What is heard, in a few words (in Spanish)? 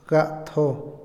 todo, Otomí, hombre; INALI